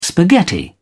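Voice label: "spaghetti", English, British English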